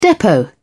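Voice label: "depot", English, British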